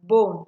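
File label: "bone", Nigerian Pidgin, female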